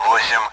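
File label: Speech, Russian, AWACS; Digits; DCS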